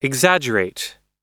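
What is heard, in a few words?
"exaggerate", English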